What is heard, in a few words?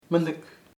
bad, chamacoco; malo